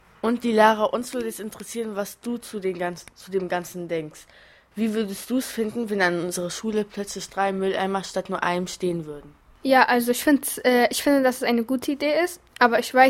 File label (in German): skeptische stimme; muelltrennungsprojekt